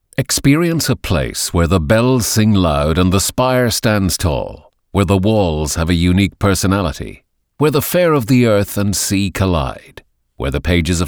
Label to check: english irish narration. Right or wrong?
Right